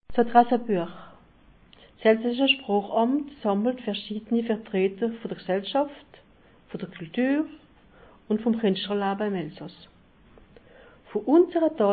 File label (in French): adressebuech sundgau